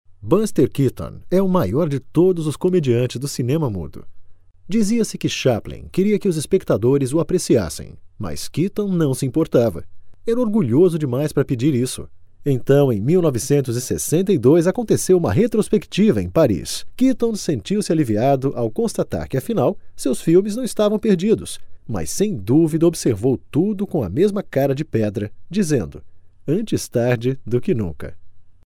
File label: CONVERSATIONAL DRY PORTUGUESE VOICE; 30 SEC.